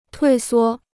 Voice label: "退缩", Chinese